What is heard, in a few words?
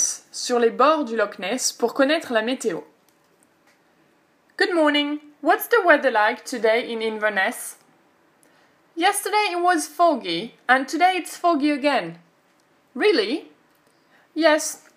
phone call